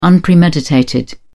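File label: "unpremeditated", British English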